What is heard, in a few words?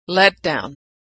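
"letdown", American English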